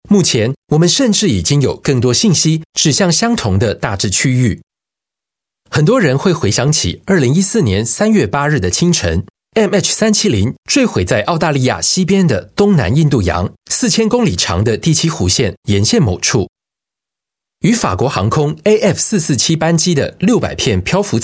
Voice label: chinese voice over male documentary